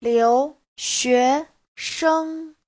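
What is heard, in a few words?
"liúxuéshēng", Mandarin Chinese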